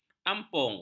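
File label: "ampung", Malay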